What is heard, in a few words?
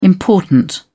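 "important", British English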